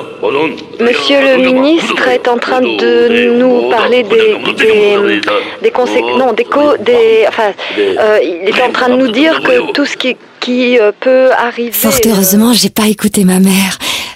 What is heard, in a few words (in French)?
Voix Naturelle; Dexia